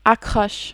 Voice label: "accroche", French

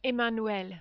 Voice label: "Emmanuel", French

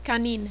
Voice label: "canine", French

